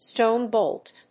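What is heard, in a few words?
"stone bolt", English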